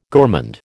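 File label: "gourmand", American English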